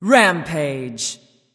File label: "rampage", Announcer Killstreak; Nox